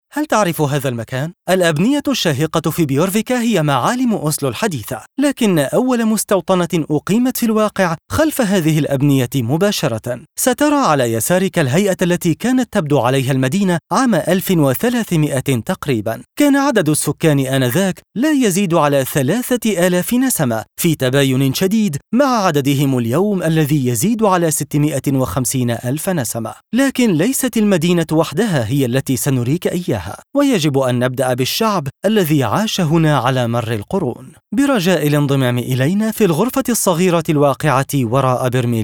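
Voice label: Egyptian Arabic audioguide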